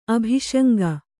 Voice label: "ಅಭಿಶಂಕೆ", Kannada

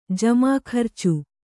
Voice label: "ಜಮಾಖರ್ಚು", Kannada

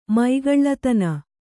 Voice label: "ಮೈಗಳ್ಳತನ", Kannada

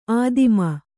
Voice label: "ಆದಿಮ", Kannada

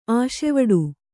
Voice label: "ಆಸೆವಡು", Kannada

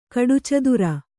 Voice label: "ಕಡುಚದುರ", Kannada

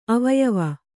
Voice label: "ಅವಯವಿ", Kannada

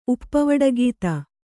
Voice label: "ಉಪ್ಪವಡಗೀತ", Kannada

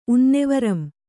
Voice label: "ಉನ್ನೆವರಂ", Kannada

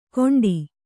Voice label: "ಕೊಂಡಿ", Kannada

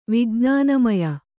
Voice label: "ವಿಜ್ಞಾನಮಯ", kannada

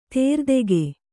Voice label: "ದಾರಿದೆಗೆ", Kannada

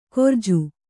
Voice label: "ಕೊರ್ಜು", kannada

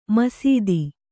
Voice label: "ಮಸೆತ", kannada

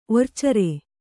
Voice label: "ಒರ್ಚೇರೆ", Kannada

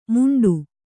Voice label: "ಮುಂಡು", kannada

